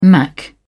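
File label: "mac", English, British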